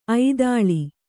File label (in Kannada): "ಐದಾಳಿ", kannada